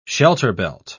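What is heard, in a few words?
"shelterbelt", British English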